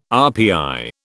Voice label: "RPI", British